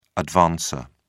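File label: "advancer", British English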